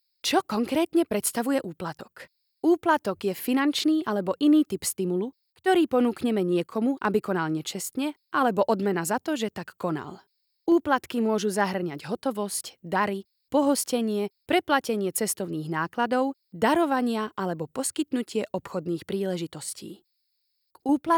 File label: slovak e-learning